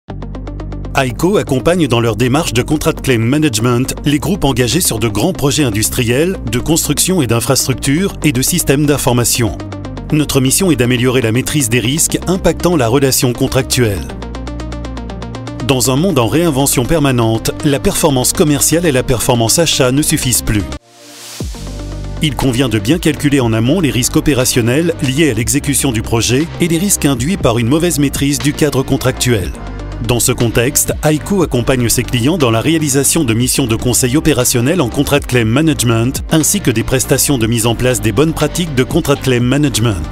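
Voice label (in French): institutionnel, Voix institutionnel; IQO Contrat Claim Management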